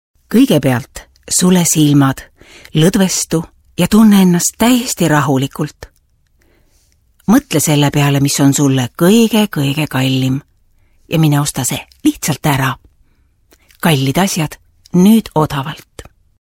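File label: estonian female narration; voice demos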